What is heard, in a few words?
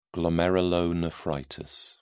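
"glomerulonephritis", English, British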